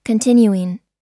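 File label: "continuing", US English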